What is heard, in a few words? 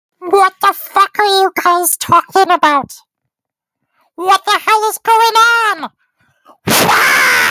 voice message